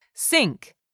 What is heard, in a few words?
"sink", English; 3000 words